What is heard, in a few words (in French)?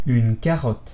"carotte", French